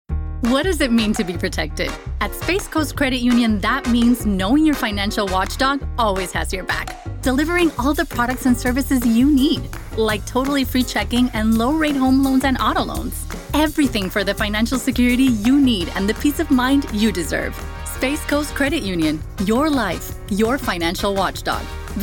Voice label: english american commercial, Spanisch (Puertoricanisch); zweisprachige Sprecherin und Schauspielerin